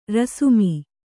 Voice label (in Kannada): "ರೇಸ್ಮೆ", Kannada